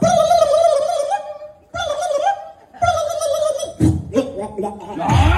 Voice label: lady speaking gibberish; audio meme

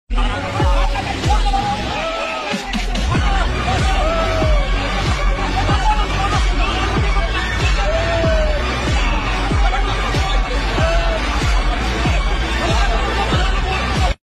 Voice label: village men fighting; audio meme

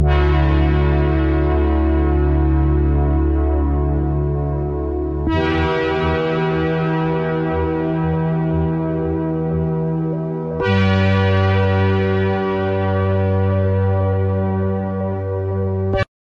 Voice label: guy talking with monkey; audio meme

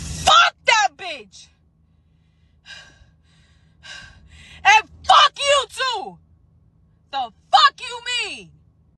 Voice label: raging woman; audio meme

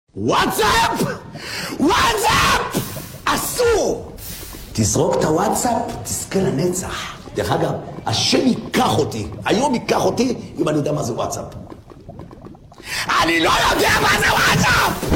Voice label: guy yelling whats app; audio meme

